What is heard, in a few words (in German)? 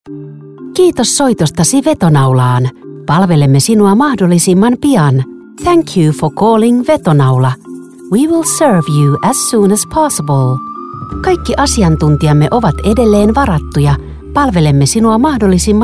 telefonansagen sprecher; Native Speaker für Telefonansagen